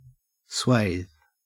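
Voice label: "swathe", Australian English; pronunciations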